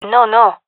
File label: negative, gendarmerie fem epic; vo; agents